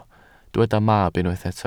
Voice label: "mab", Welsh

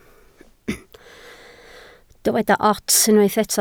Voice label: "ots", Welsh

